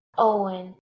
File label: "owen", Middle English